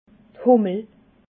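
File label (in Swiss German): "hummel", Alsatian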